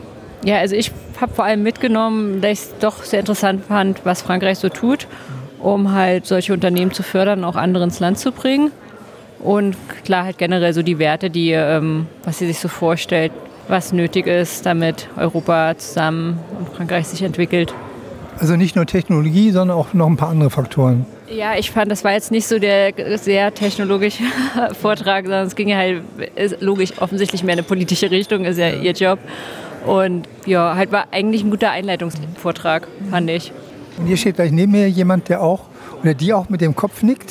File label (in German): 2 junge frauenstimmen